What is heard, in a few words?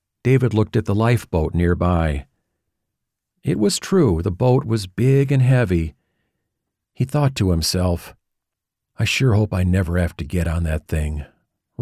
Fiction Adventure Dialogue